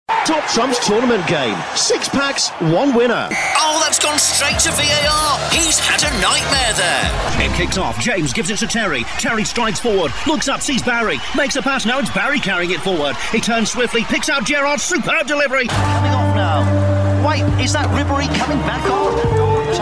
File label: english british video games